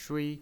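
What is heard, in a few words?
"shui", Mandarin Chinese pinyin, first tone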